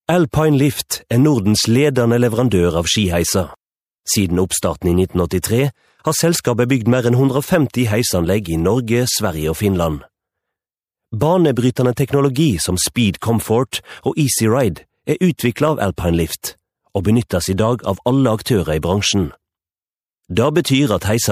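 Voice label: norwegian male narration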